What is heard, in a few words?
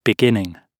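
"beginning", British English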